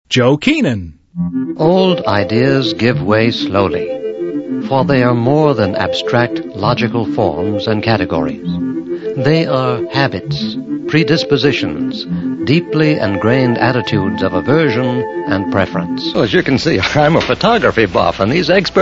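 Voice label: Male Talent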